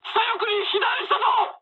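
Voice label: left wing mood high, speech japanese; War Thunder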